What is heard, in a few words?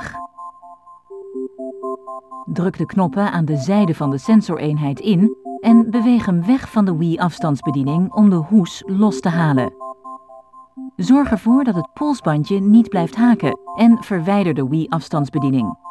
Disconnecting Motion Plus (Dutch); Skyward Sword Original Soundtrack